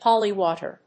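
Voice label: "Polywater", English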